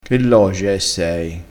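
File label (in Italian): "logia", crespinese dialettale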